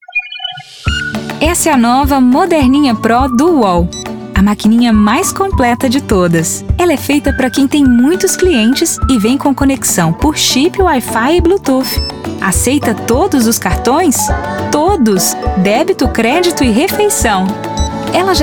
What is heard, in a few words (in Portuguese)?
Spot; Moderninha Layout